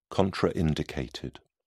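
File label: "contraindicated", British English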